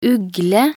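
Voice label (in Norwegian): "ugle", Norwegian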